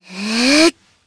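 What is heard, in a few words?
Vox Casting Japanese; Hanus; kingsraid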